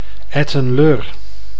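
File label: "Etten-Leur", Dutch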